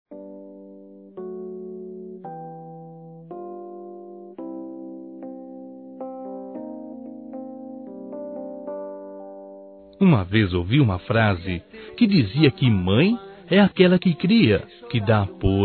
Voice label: MADRASTA M

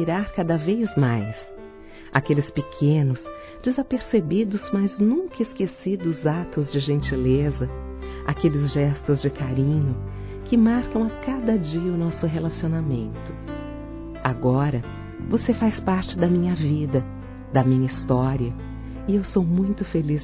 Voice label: Romantica Aniversário Namorada Feminino; Telemensagens; Românticas

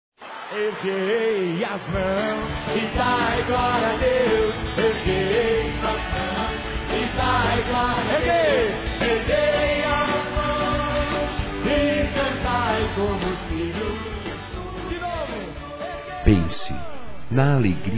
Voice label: Reflexão, masc; Telemensagens 2017

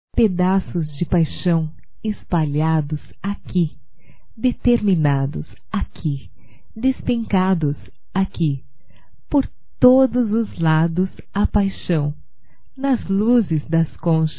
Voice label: SÓ VOCÊ - F, Telemensagens Românticas

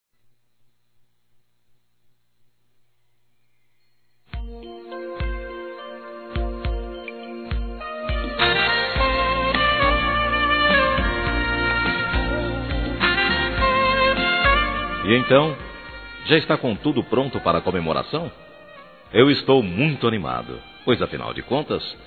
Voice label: Aniversário Primo Masculino

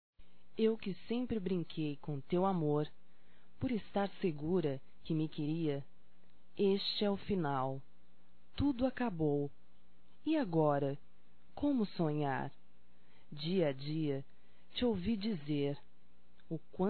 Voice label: Despedida Poesia Feminino; Despedidas e boas vindas; Telemensagens 2017

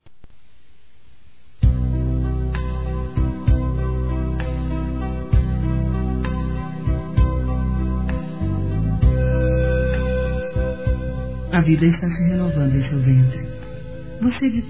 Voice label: Evang. Parab. Gestação f, Telemensagens Gospel fem; Telemensagens 2017

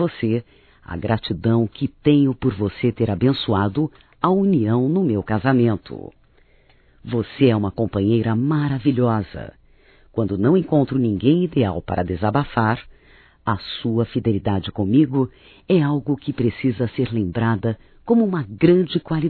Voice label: ANIV CUNHADA (F), Evangelico; Telemensagens 2017

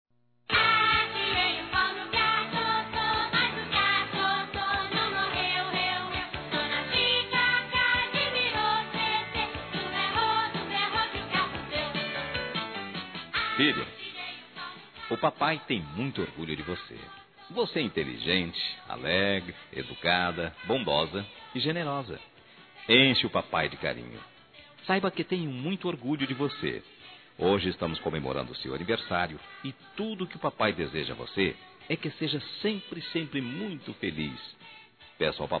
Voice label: Filha Criança Masculino

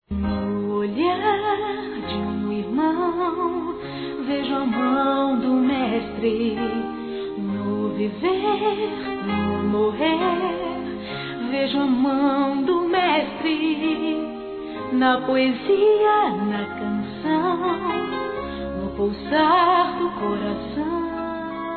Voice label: Trilha CONVITE P IR A IGREJA M, Telemensagens, Gospel, masc

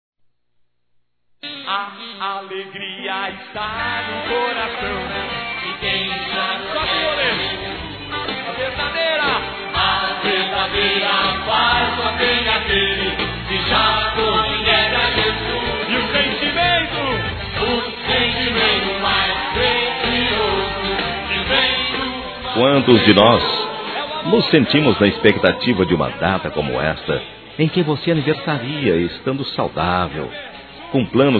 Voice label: Neutro Católico masculino

